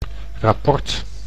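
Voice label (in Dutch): "rapport", Dutch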